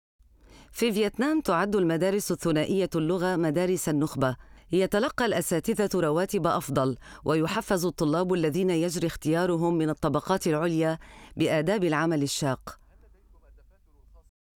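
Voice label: arabic f narration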